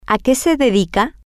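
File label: what do you Marcos do for a living, Spanish, female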